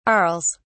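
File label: "arles", British English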